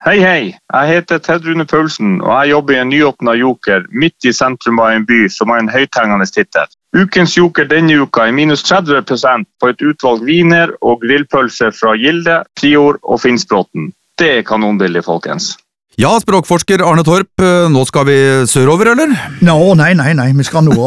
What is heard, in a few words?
radiospots